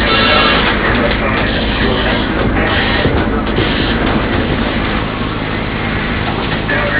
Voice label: Dispatch